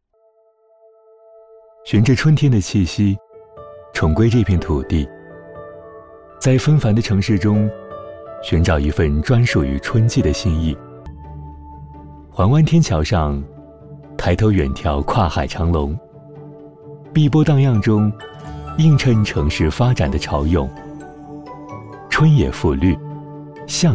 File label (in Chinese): 男 旅游; 趣见春天-不如来野